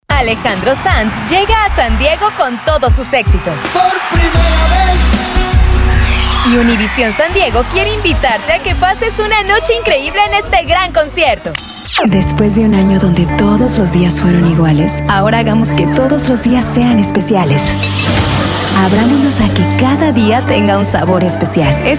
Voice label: spanish female voices

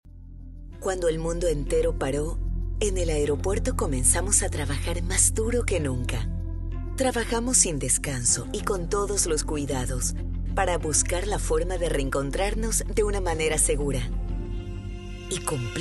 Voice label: spanish, spanish female voices

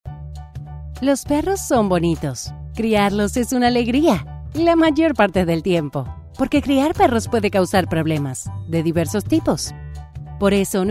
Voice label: spanish, spanish female voices

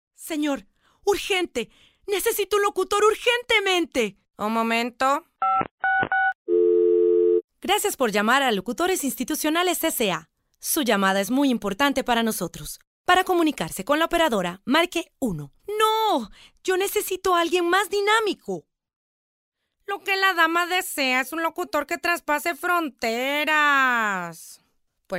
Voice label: spanish female voices

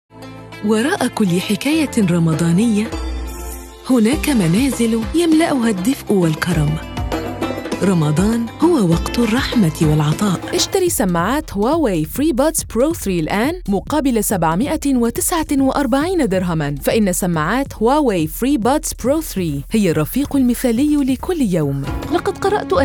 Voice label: arabic, arabic female voices

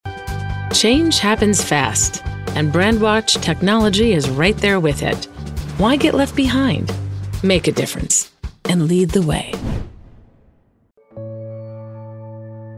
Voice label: english female voices american accent

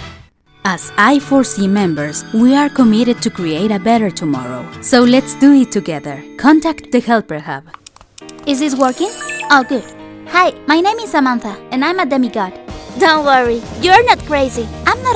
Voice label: english, english female voices mexican accent

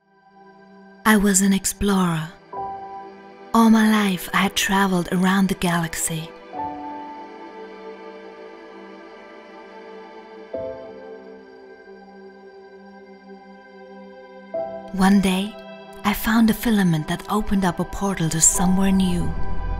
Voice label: english female voices german accent

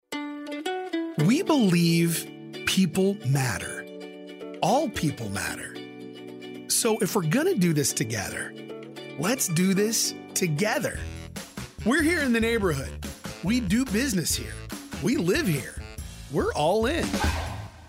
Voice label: english male voices american accent

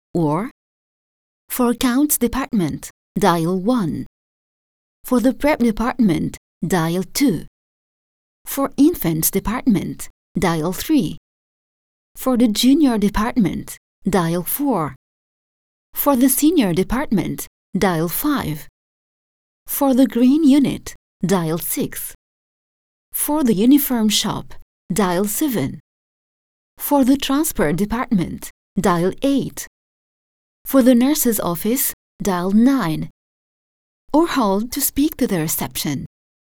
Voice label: english ivr, english female voices arabic accent

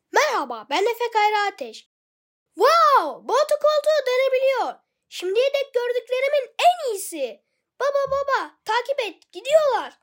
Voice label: turkish child voices

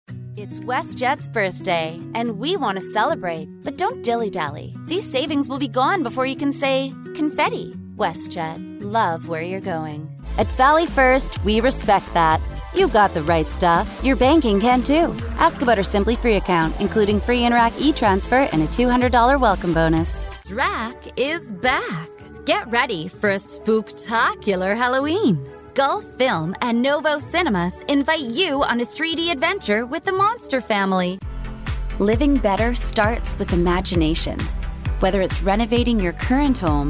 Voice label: english female voices canadian accent

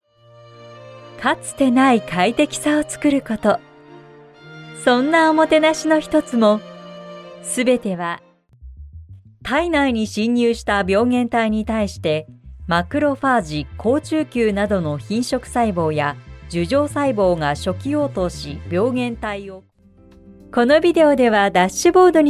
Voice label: japanese female voices

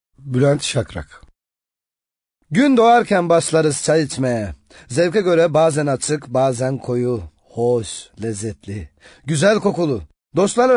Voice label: diyalekt, turkish dialect voices

